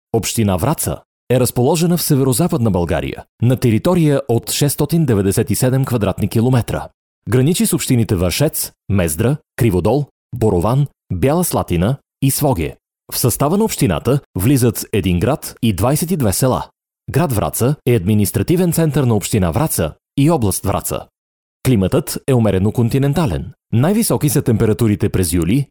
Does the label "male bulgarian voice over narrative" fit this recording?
Yes